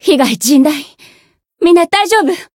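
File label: Voice win barely, Japanese; anaberu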